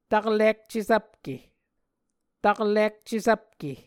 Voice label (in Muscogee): "taklik cesvpke", Muscogee